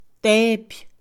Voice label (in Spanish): "quemar", Mixe, Mujer; INALI